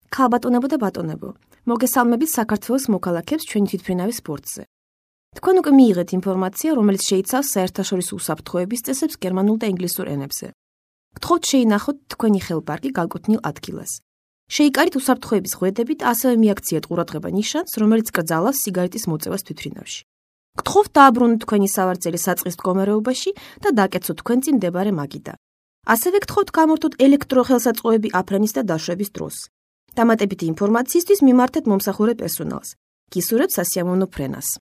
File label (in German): Georgien W